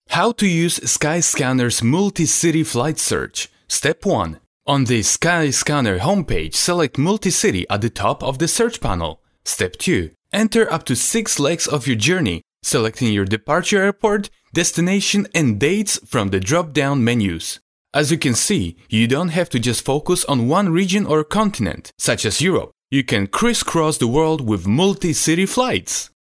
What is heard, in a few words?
male polish voice over talent english